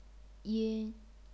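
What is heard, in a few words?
"yin", chinese pinyin, first tone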